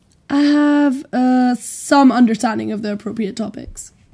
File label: interviewee female